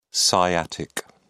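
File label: "sciatic", British English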